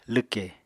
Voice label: "lwkè", Apatani